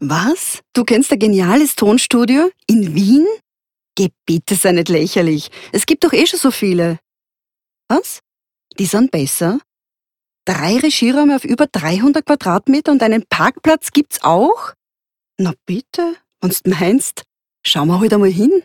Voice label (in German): Werbung lächelnd